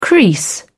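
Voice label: "crease", English, British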